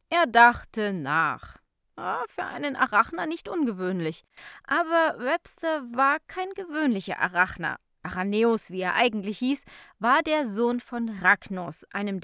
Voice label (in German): Erzähler